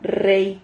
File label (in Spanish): "rrey", Silabas